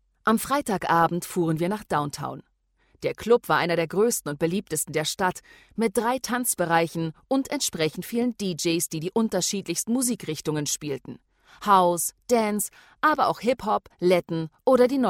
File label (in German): Sprecherin Sprachproben